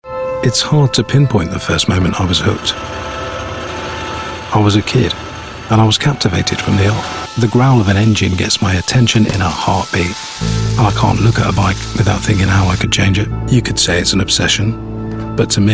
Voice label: English UK